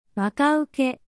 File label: anime, Japanese Anime and Internet Slang Expressions of ‘Haha! That’s Funny!